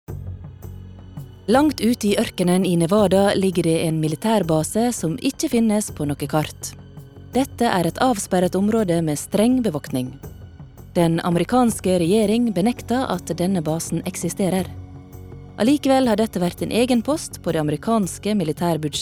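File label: Norwegian documentary sunnmore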